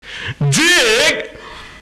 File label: male fx vox, vocal house, g#; PS-28 Vocal House Samples